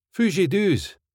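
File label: Foos ye doos, Scottish English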